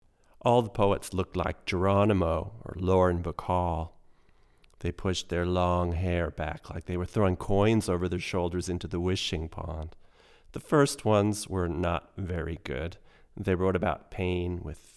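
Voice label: VO English, vintage AKG C414 COMB condenser; VOICE OVER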